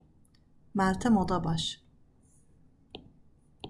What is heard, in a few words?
normal pace